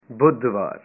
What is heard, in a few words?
"Wednesday", punjabi